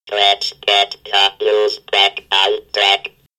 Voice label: robot news